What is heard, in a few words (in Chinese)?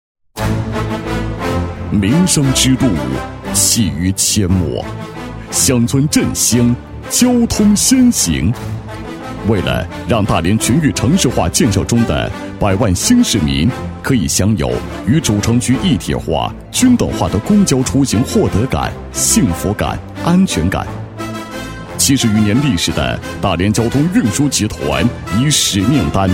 男 大气沉稳; 大连交通运输集团金普新区; 厚重大气 大气浑厚